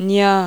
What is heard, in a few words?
"JNa", marathi